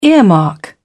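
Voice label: "earmark", British English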